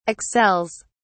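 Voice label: "excels", British English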